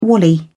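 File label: "wally", English, British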